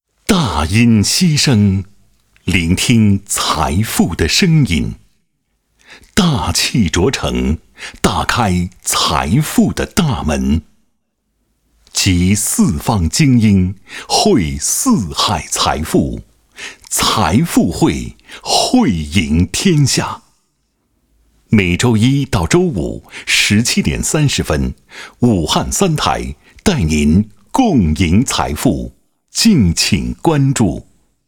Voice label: 男, 电视栏目片头; 财富汇

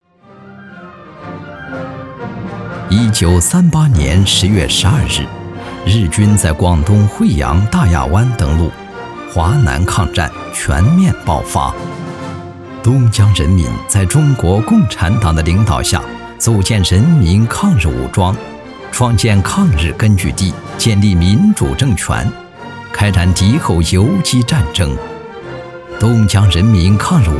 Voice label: 男; 东江纵队

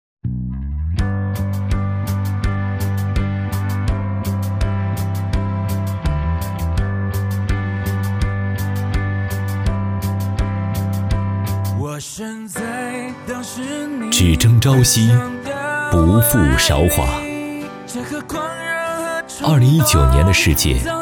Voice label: 男, 独白, 回顾

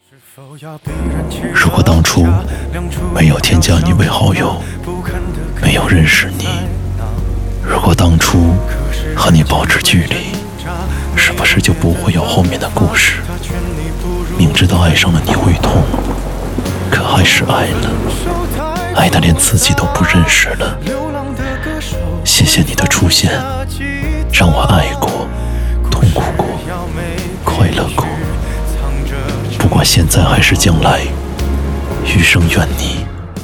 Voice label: 男, 抖音旁白; 余生愿你一切安好

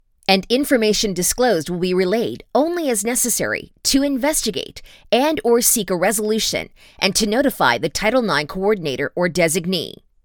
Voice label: 现场报道新闻风格

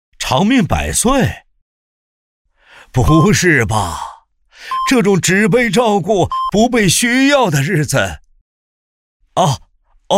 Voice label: 男, 角色, 爷爷

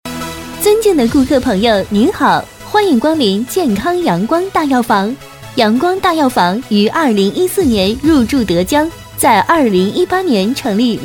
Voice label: 女 日常女声配音

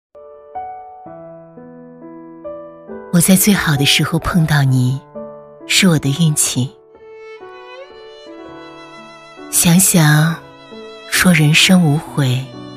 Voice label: 女 旁白 暖心自述

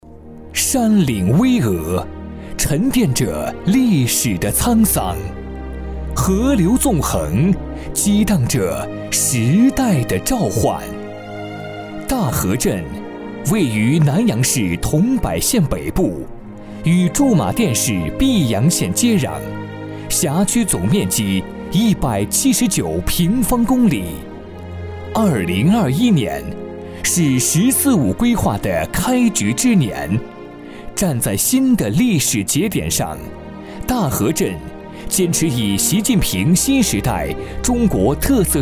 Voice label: 男 宣传片; 大河镇

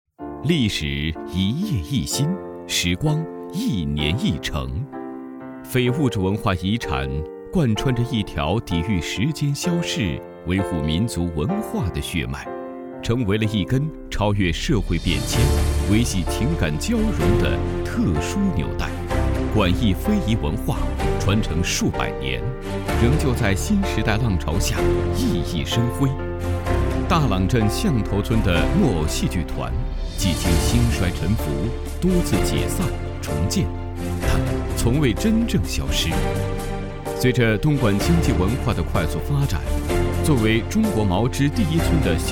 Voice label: 男, 纪录片; 东莞非遗

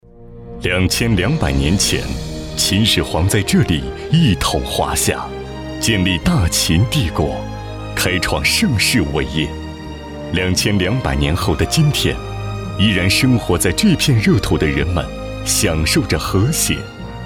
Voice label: 男 专题 检查院

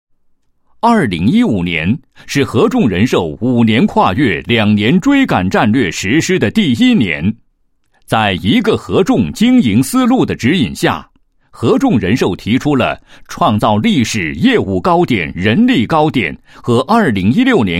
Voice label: 男 工作汇报; 合众人寿

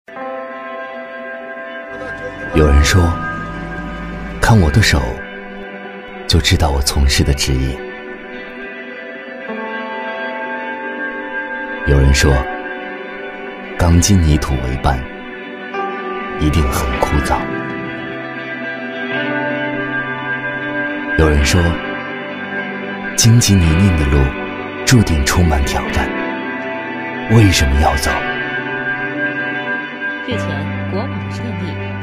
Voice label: 男 沉稳; 国网电力

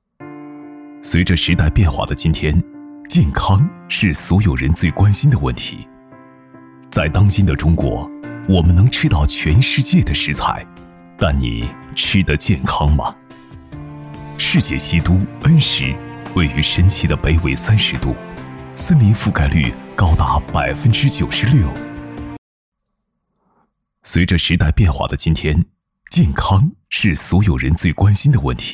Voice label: 男 宣传片 诉说感

